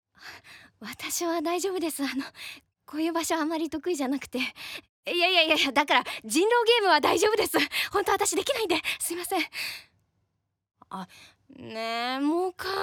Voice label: plain woman